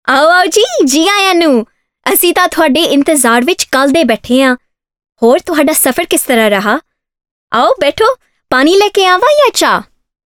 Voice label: Punjabi Read

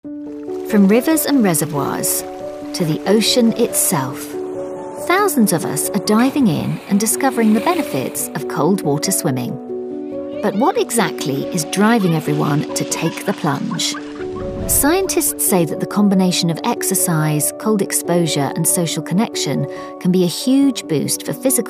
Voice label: narration reel

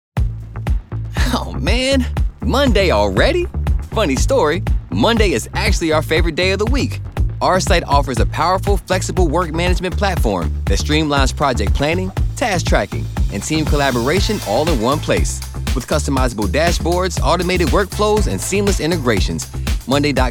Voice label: EXPLAINER

